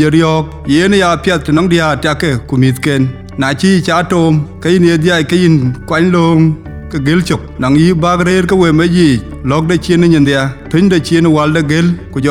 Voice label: COVID-19 J&J Vaccine DINKA